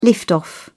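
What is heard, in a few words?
"lift off", British English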